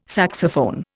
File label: "saxophone", Indian